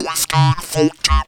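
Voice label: effects talk, Funky Vocals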